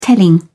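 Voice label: "telling", British English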